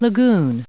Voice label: "lagoon" noun